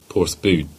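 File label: "Bude" Cornish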